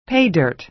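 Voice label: "pay dirt", American English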